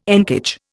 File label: "encage", Indian